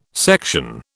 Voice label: "section", British

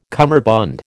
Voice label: "cummerbund", American

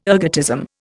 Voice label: "ergotism", Indian

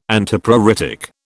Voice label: "Antipruritic", British

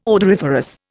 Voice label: "odoriferous", Indian

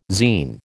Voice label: "zein", American

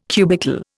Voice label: "cubical", Indian

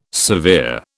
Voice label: "severe", British

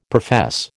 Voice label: "profess", American

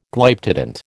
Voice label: "Glyptodont", American

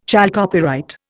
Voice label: "chalcopyrite", Indian

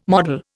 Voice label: "model", Indian

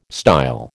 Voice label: "style", American

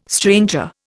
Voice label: "stranger", Indian

